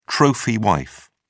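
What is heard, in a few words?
"trophy wife", British English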